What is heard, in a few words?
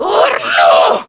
Surprised, Female; Warframe; Grineer Female; Battle Barks